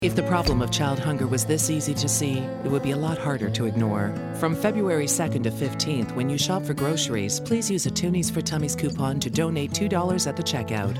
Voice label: english canadian

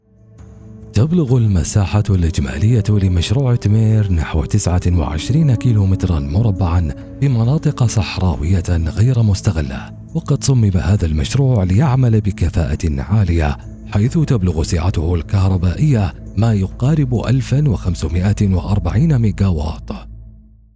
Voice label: arabic documentaries

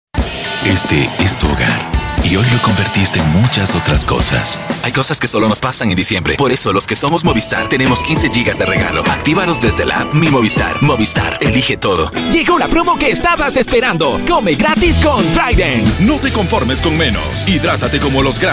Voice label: spanish latin american announcements

